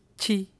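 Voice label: "chi", syllable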